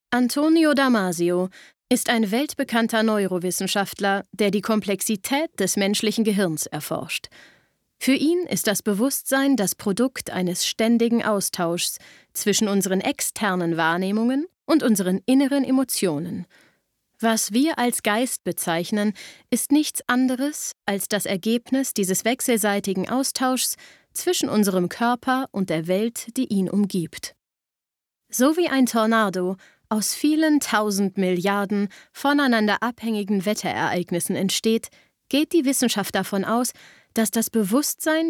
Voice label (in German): Reportage; Antonio Damasio